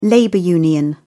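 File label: "labor union", British English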